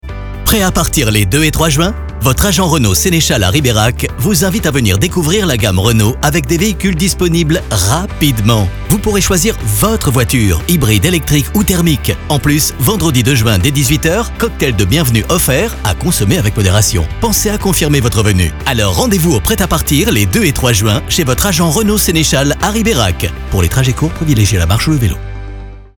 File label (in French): Spot; Renault Riberac